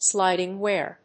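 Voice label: "sliding wear", English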